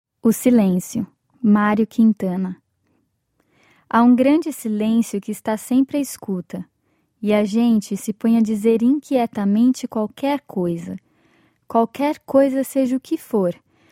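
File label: Brazilian, narration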